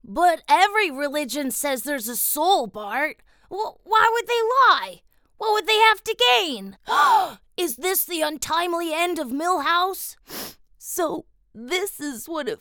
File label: Female Voice Over Actress